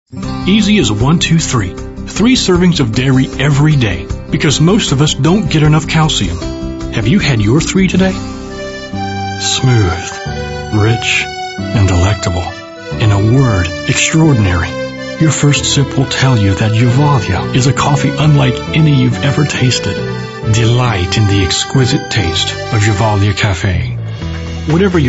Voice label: Commercial